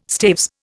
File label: "staves", Indian